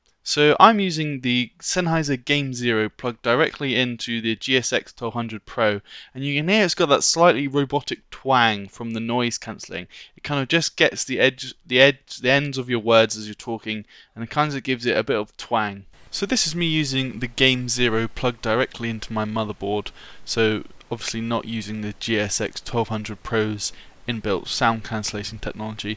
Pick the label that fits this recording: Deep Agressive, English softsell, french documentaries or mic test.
mic test